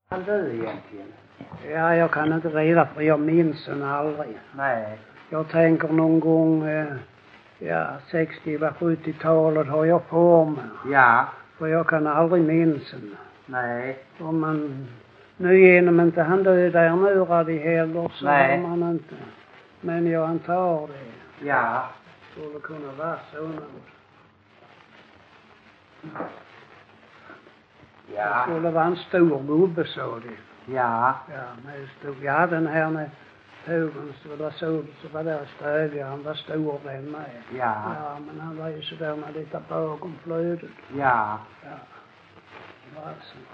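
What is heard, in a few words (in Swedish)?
Lackalänga Skåne, Svenska sagor dialekter; Folkminnen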